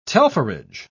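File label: "telpherage", British English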